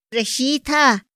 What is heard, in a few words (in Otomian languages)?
ra xitthä, Otomí; Días de la semana; INPI; INALI